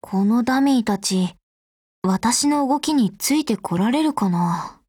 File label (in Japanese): COMBINE JP